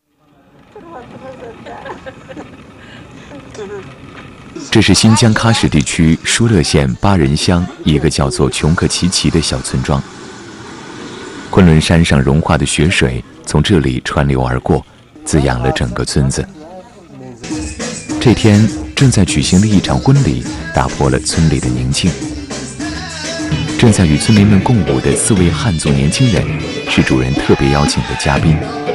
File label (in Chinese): 男 记录片